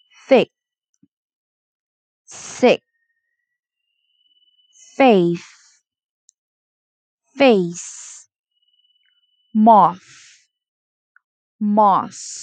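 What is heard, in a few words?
Minimal Pairs θ s, pronunciation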